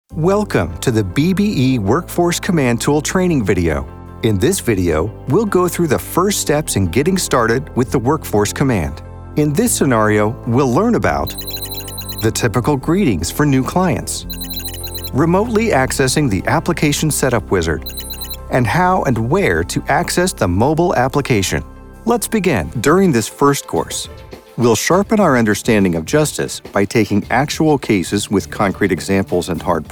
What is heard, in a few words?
Elearning